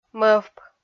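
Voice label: "мӧвп", Komi-Zyrian, female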